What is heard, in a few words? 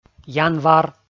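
"январ", Komi, male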